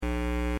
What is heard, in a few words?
Aviation 122.7; KSTE